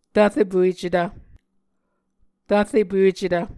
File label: "tvrepuecetv", Muscogee